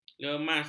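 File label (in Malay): "lemas", Malay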